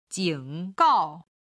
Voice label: "jinggao", Chinese